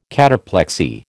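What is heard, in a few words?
"cataplexy", American English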